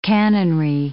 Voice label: "cannonry", English